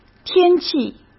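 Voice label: "天气", Mandarin Chinese; chinese flashcards; hsk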